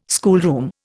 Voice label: "schoolroom", Indian

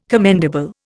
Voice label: "commendable", Indian

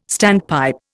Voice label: "standpipe", Indian

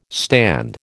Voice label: "stand", American

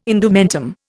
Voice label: "indumentum", Indian

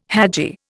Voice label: "hadji", Indian

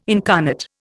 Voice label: "incarnate", Indian

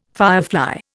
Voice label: "firefly", Indian

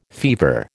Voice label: "fever", American

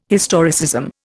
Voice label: "historicism", Indian

